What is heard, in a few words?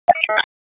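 Police Law Enforcement Ops, p25; wake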